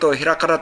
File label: "herakara", minamiyamagata